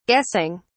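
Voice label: "guessing", British English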